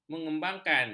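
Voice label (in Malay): "mengembangkan", Malay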